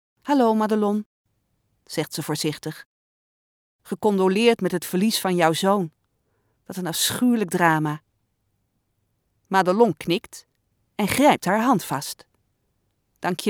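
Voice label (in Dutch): audioboek, stemvoorbeelden; Venijnig Spoor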